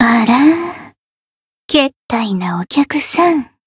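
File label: encounter fear, Japanese; hoozuki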